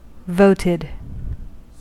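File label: "voted", US English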